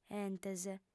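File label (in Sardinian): "entes", female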